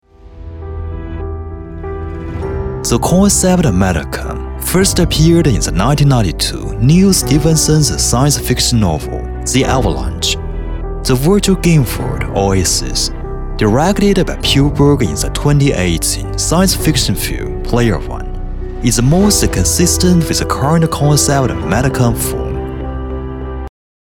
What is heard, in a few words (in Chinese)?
男 英语企业宣传片 大气品质 元宇宙